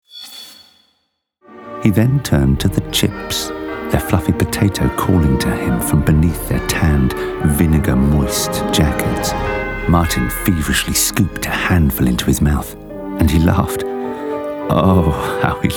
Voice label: Voice Reel Corporates Commercials